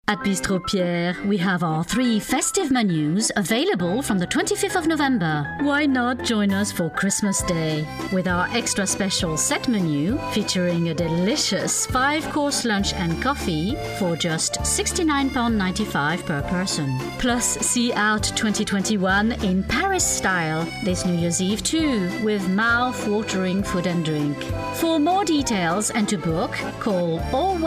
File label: french promos